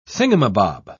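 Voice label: "thingumbob", British English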